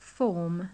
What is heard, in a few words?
"form", English